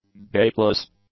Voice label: "gapless", English; adjectives

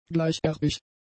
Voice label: "gleicherbig", German; adjectives

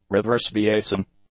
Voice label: "reverse biasing", English; nouns

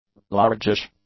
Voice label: "largish", english; adjectives

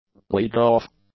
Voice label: "leadoff", english; adjectives

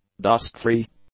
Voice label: "dust-free", English; adjectives

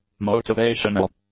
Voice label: "motivational", English; adjectives

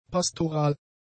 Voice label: "pastoral", German; adjectives

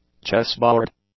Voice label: "chessboard", English; nouns

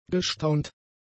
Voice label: "gestaunt", German; adjectives

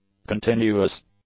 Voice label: "continuous", English; adjectives

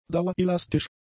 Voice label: "dauerelastisch", German; adjectives

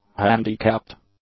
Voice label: "handicapped", English; adjectives

